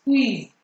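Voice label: "squeeze", Nigerian Pidgin, female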